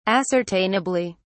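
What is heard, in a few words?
"ascertainably", British English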